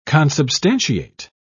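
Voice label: "consubstantiate", British English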